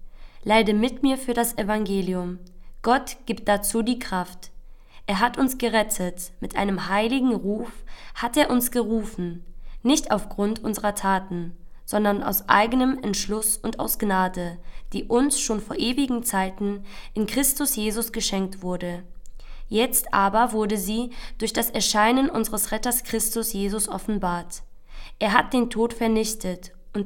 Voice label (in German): Lesung; 2. Fastensonntag A